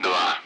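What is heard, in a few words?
Speech Sound Russian Common Allied Flight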